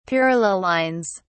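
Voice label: "parallel lines", British English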